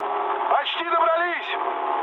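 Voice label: near waypoint, mood med, Russian; War Thunder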